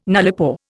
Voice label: "nullipore", Indian